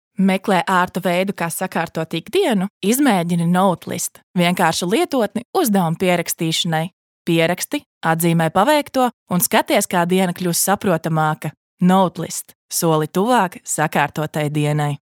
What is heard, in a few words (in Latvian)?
Reklama